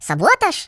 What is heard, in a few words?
Watchit, Russian; Russian pl